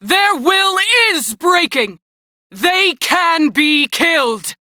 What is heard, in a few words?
Crew Enemy Defeat, Female; Warframe; Railjack Crew; New Loka